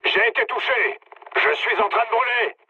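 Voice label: French on fire mood low; War Thunder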